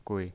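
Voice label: "gui", Mandarin Chinese, neutral tone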